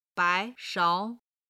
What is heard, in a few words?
"bai2 shao2", Chinese